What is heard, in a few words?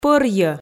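"пӧрйӧ", Komi, female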